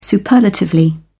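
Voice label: "superlatively", English, British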